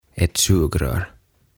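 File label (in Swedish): "ett sugrör", Swedish; Juomapilli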